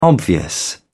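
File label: "obvious", British English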